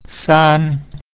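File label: "san" three, Mandarin Chinese pinyin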